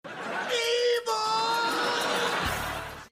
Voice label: evil